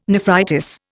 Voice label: "nephritis", Indian

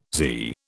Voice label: "zee", British

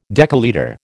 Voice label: "decalitre", American

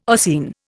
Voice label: "ossein", Indian

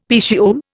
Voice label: "petiole", Indian; Dictionary

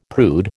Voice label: "prude", American

